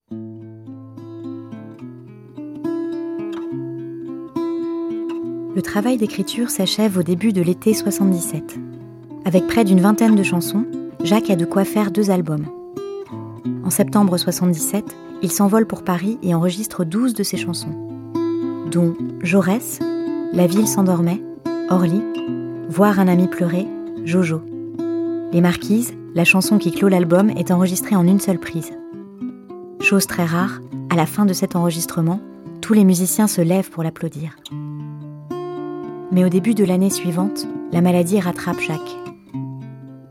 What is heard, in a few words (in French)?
Narration fiction; France Inter